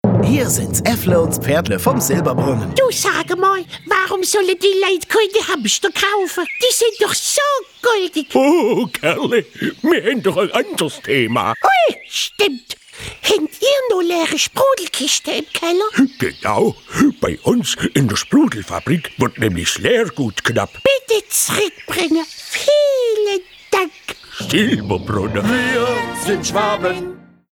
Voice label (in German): funkspot; silberbrunnen; leergutrueckgabe; hamster